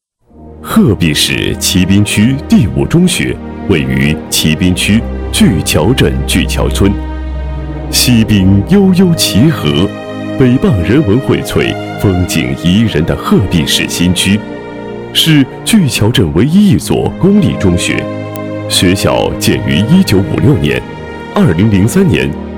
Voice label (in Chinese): 男, 工作汇报, 工作视频解说; 淇滨区五中2016年度